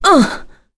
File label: Vox Damage, en; Tanya; kingsraid